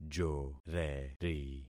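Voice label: "joreri", concatenated syllables; Affixing experiment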